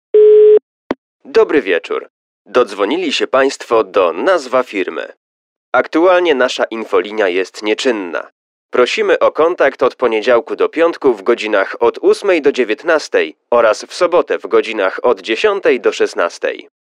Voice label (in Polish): ivr po godzinach